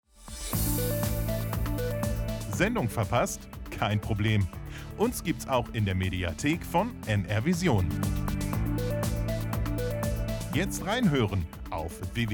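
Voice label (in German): audiotrailer radio männlich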